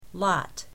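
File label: "lot", English word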